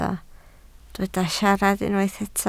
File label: "siarad", Welsh